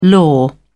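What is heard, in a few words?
"law", British English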